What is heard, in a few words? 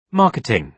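"marketing", British English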